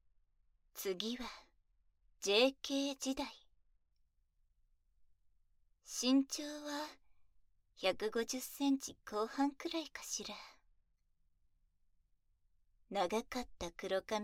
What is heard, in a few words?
ASMR; JK時代~しゅっしゅっこりこり~